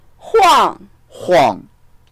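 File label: "huang4", Mandarin Chinese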